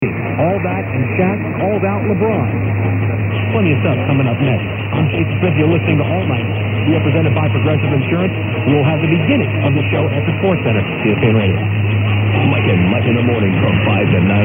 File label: vg am and usb; 1620 krzi